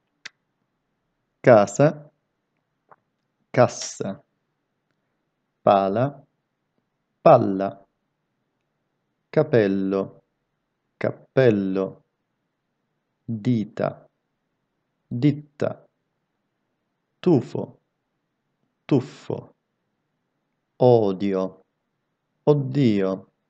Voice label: italian pronounciation doubles